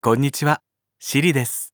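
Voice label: Japanese, Japan; iOS 26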